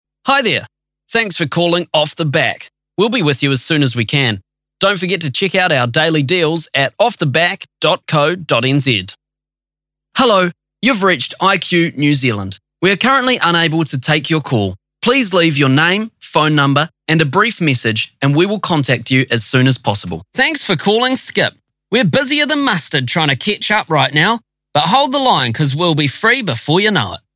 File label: NZ PHONE